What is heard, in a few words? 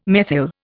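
"methyl", English, Indian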